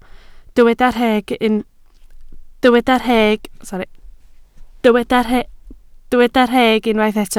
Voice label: "rheg", Welsh

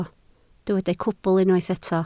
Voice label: "cwbl", Welsh

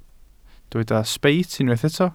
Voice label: "sbeit", Welsh

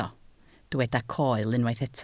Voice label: "coel", Welsh

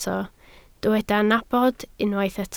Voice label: "nabod", Welsh

